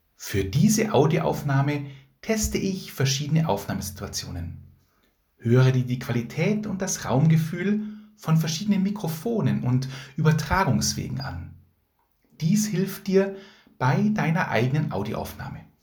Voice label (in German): podcast diktiergeraet kleiner raum, small room - reflective walls / surfaces